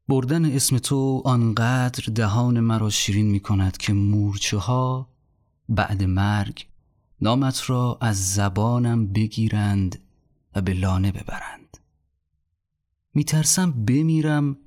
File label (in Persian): نمونه صدای دوم شعر